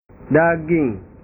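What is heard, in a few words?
"daging", Indonesian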